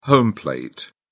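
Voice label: "home plate", British English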